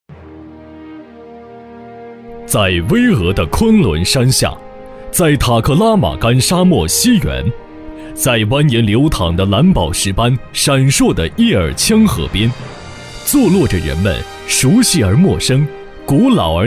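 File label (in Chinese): 男【宣传片】; 应急局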